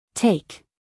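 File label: "take", American English, female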